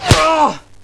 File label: pain